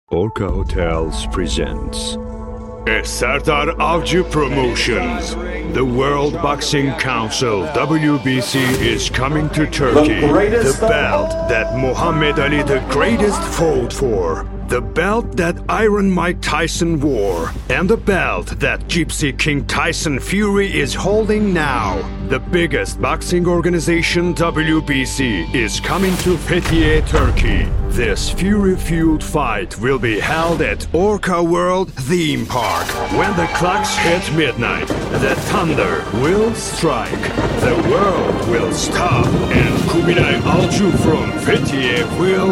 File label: announcement sport eng